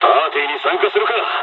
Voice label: dialogs japanese; War Thunder